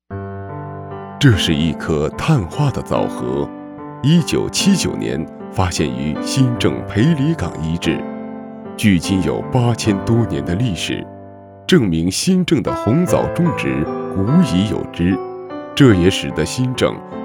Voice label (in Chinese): 男85 【专题】记录解说混音; 轻松; 飞碟说MG